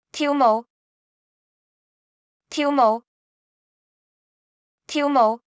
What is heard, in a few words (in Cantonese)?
跳舞, Cantonese; Traditional Chinese